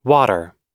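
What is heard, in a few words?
"water", US English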